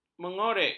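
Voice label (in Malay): "mengorek", Malay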